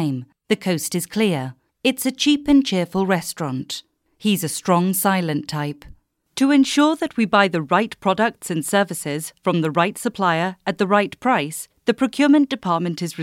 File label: english british e-learning